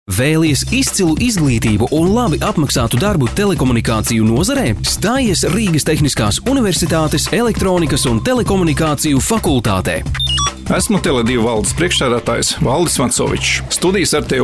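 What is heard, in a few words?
Latvian, male, commercial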